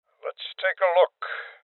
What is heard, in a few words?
Voices Open Safe Male Old; Rebel Cops